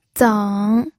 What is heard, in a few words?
"zeng3", pinyin